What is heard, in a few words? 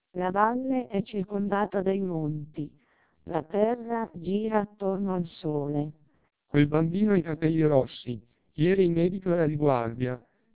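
Italian speech, TWELP 480 bps vocoder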